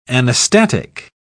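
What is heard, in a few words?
"anastatic", English, British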